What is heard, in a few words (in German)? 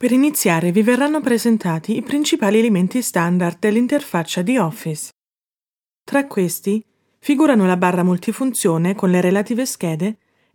E-Learning italienisch native Sprecherin